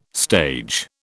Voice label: "stage", British

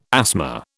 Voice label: "asthma", British

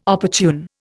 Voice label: "opportune", Indian

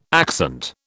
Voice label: "accent", British

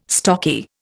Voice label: "stocky", Indian

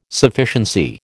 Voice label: "Sufficiency", American

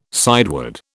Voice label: "sideward", British

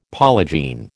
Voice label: "polygene", American

